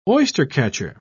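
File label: "oystercatcher", British English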